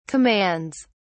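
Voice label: "commands", English, British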